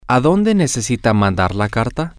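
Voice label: where to does he need to send the letter, spanish, male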